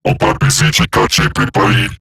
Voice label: Attack Robot Large; Dialog; Barks; Battle; en; Eximus Corpus; Corpus Robotic Large